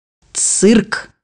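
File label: "circus", Russian; bukvar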